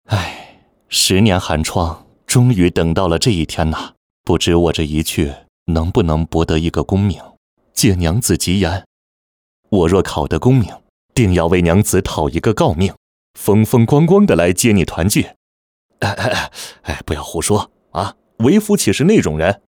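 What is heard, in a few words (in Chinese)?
角色 男 青年 旁白书摘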